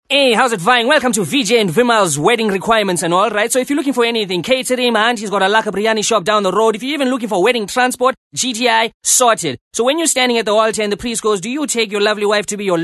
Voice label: English Character South African Indian

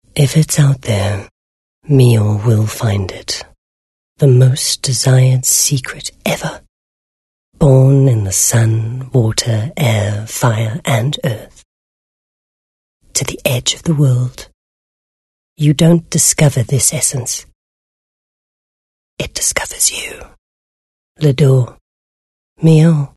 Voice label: English Intimate

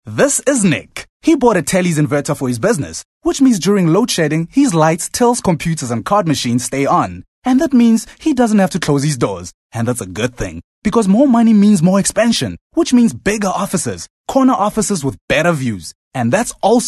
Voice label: English Conversational

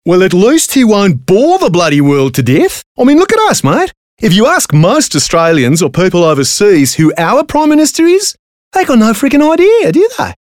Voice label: English Accent Australian

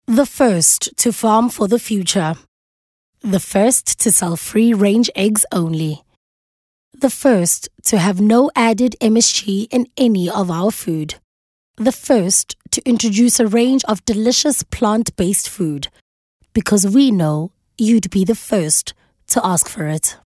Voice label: English, soft sell

